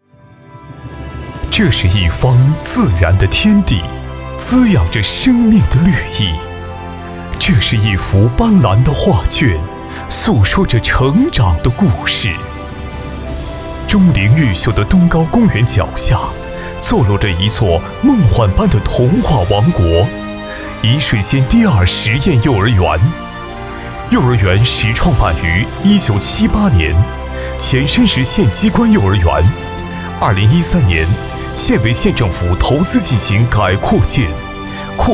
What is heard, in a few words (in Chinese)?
男, 专题 学校类